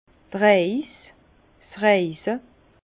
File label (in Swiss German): "reis", Bas Rhin; vin; voyage